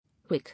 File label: "Wig", English